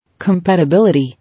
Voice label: "Compatibility", English